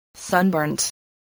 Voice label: "sunburnt", spelling